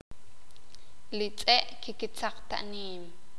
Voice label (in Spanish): los perros no corren estan corriendo, sakapulteko